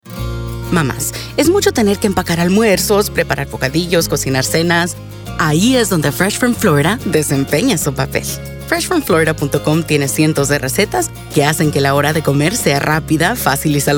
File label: Busy Mom Spanish; radio